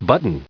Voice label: "button", en-us